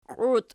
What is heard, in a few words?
"qiut", Archi